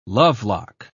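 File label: "lovelock", British English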